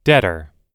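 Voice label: "debtor", US English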